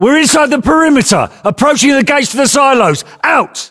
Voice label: voiceovers, US; english